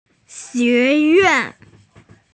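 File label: "college", female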